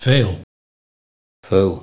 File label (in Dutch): "Veel - Veul", Nieuw lekkerlands dialect